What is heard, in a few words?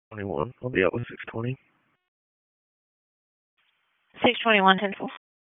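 Sheriff's Office Dispatch; WSO DISP 1; wake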